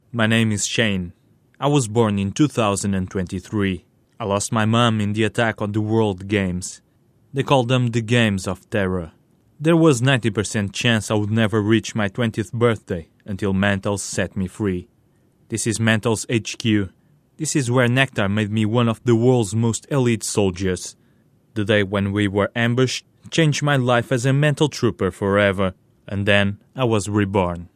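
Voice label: Portuguese, English; Male, 20s-30s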